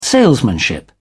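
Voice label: "salesmanship", British English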